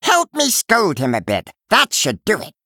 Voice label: Male Murloc; Finley; Faelin Mission9b Exchange